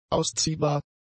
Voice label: "ausziehbar", German; adjectives